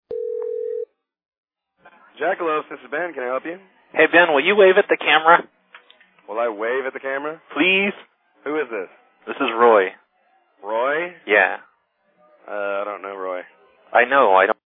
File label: jackalope call, Prank Calls; Phone Losers of America Media Pack 2014; Miscellaneous Pranks